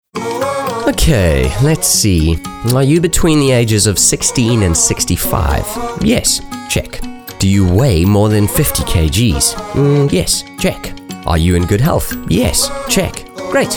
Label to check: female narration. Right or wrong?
Wrong